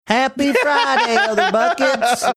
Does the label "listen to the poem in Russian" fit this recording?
No